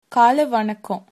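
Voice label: good morning, Tamil, female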